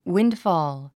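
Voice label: "windfall", English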